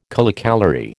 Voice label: "kilocalorie", American